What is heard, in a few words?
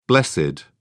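"blessed", British English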